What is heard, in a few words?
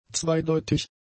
"zweideutig", German; adjectives